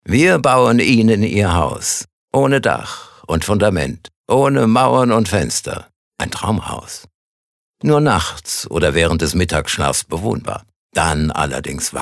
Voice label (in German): Werbung Cool